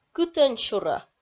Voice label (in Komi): "кытӧнсюрӧ" Komi